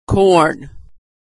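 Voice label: "corn", American English; vowel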